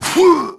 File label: EFFORT; NIXON; Pulse Racer